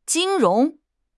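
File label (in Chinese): "金融", Chinese; HSK6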